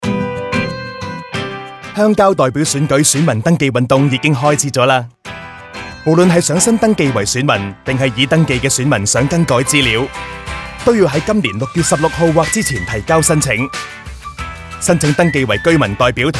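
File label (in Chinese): Radio Cantonese; rural representative elections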